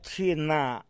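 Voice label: "china", San Lucas Quiaviní Zapotec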